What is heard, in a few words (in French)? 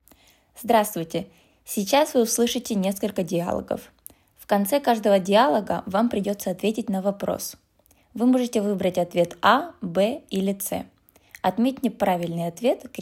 consigne russe; Positionnement-FLE-Bruxelles